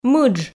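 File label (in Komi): "мыдж", Komi, female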